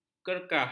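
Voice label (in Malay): "kerkah", Malay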